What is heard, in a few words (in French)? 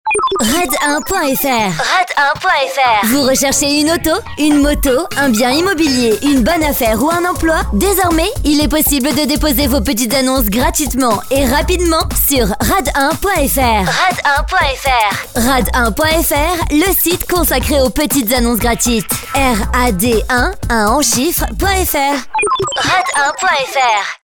radio pub spot